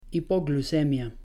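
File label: "hipoglucemia", Spanish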